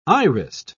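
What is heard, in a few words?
"irised", English, British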